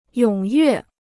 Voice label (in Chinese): "踊跃", Chinese